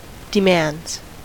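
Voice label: "demands", US English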